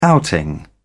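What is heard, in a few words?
"outing", British English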